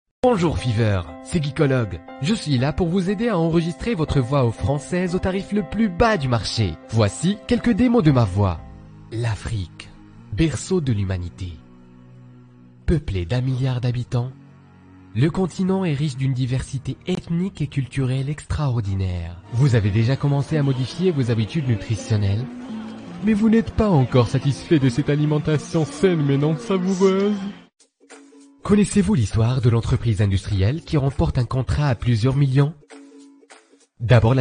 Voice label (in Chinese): 法语男, 外语, 小语种, 浑厚